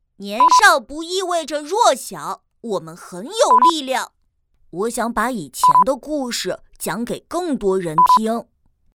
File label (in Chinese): 角色 男1 男3 试音